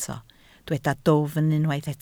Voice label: "dwfn", Welsh